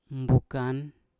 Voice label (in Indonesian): "mbukan" nene, Mpur